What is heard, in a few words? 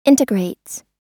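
"integrates", US English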